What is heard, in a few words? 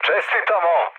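Serbian player killed enemy mood med, speech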